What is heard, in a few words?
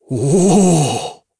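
Vox Happy, Japanese; Dakaris; kingsraid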